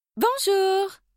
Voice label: bonjour, voix; fr